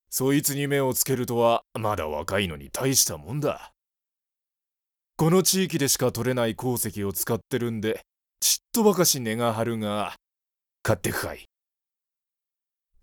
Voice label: male